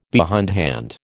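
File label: "behindhand", American English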